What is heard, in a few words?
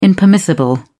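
"impermissible", British English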